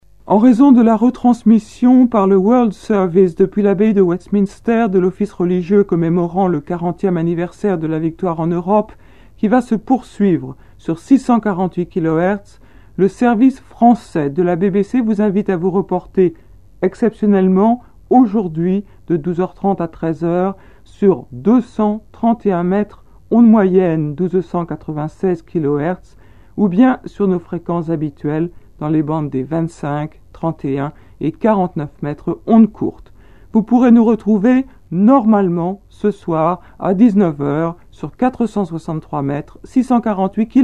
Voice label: French announcement on 648kHz; BBC World Service